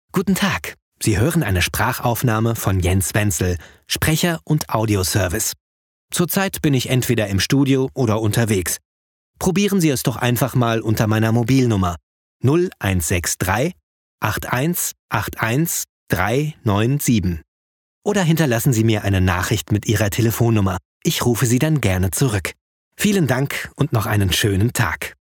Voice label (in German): anrufbeantworter, Sprecher: Telefonansagen